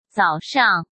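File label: "早上", Mandarin Chinese; chinese flashcards; hsk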